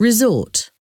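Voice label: "resort", English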